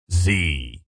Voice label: "Zee" DJ, Male Voice, alphabet; Zee, speaking, (human voice); VoiceBox